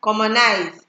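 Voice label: "commonise", Nigerian Pidgin, female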